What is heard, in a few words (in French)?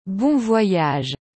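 "Bon voyage", French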